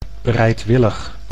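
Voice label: "bereidwillig", Dutch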